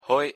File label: pronunciation nl "hoi"; język niderlandzki podstawowe zwroty